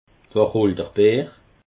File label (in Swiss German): "wachholderbeer", alsace